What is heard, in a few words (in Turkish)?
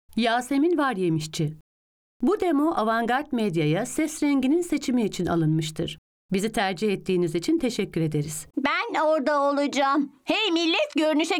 Kız Çocuk